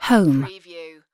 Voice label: "home", UK female; lynne publishing